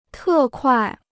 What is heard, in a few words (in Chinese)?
"特快", Chinese